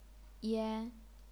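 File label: "yan", chinese pinyin, first tone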